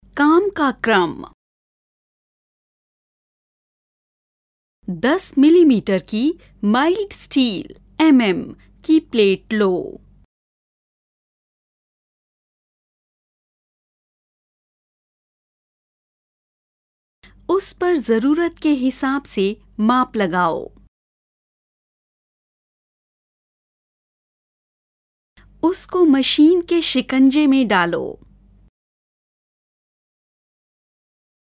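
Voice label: elearning hindi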